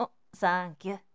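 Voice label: "thankyou", Japanese boy; shonen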